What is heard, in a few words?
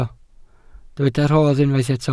"rhodd", welsh